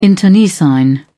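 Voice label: "internecine", British English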